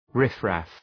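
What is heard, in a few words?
"riff-raff", English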